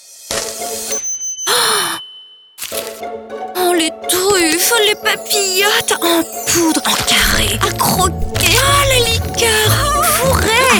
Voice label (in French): promo cadeaux; bernachon